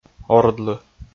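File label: "ордлы", Komi, male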